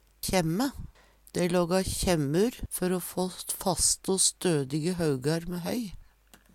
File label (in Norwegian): kjemme, Numedalsmål